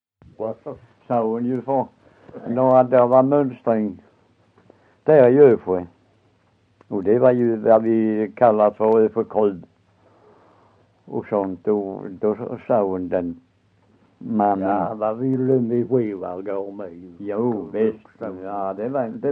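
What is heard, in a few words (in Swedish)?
Össjö Skåne, dialekter; Folkminnen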